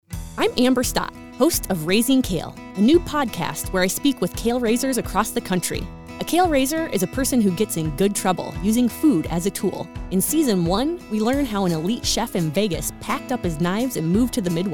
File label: promo; raising kale